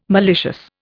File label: "malicious", Indian